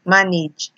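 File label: "manage", Nigerian Pidgin, female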